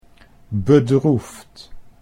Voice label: "bedroefd", Dutch

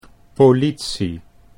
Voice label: "politie", Dutch

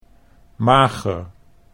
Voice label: "mager", Dutch

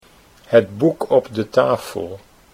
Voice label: "het boek op tafel", Dutch

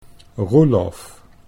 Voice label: "Roelof" Dutch

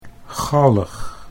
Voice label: "galg", Dutch

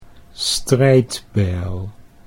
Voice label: "strijdbijl", Dutch

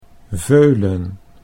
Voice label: "veulen", Dutch

